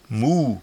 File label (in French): "moue", patois jura